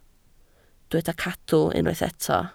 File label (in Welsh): "cadw" Welsh